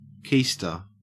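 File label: "keister", Australian English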